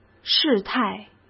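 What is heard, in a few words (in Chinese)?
"事态", Mandarin Chinese; hsk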